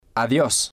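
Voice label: "adios", spanish, male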